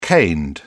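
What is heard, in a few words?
"caned", British English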